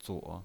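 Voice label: "zuo", Mandarin Chinese, tone 3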